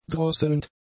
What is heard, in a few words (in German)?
"drosselnd", German; adjectives